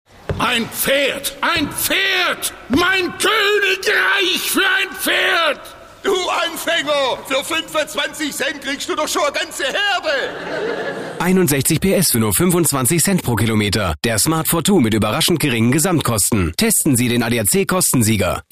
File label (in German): Dialekt Schwaebisch